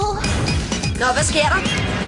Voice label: Danish intro; ringetoner; Kim Possible